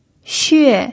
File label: "xue4", pinyin